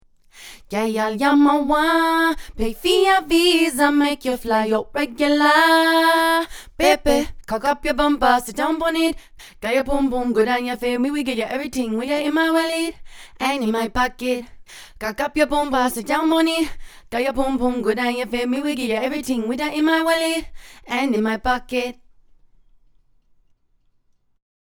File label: patois